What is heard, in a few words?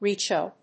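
"reecho", English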